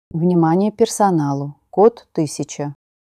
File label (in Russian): opoveshchenie kod podgotovka